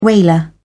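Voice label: "whaler", British English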